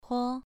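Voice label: "huo", Mandarin Chinese, second tone, pronunciation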